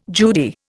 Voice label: "Judy", Indian